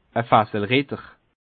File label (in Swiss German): "fasselritter", Bas Rhin; cavalier de baril